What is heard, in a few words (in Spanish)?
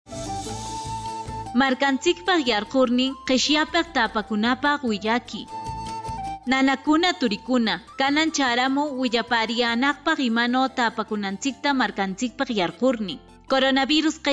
quechua ancash, spot de radio; prevencion en traslados fuera de la comunidad; precauciones al salir de mi comunidad